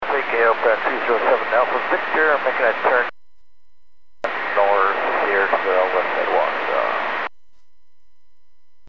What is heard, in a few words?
Aviation 122.7; KSTE